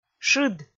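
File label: "шыд", Komi, female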